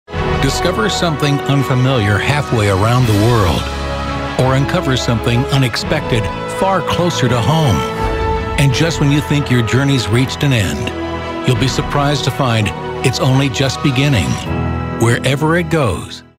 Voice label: storytellers